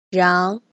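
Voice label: "rang", pinyin, second tone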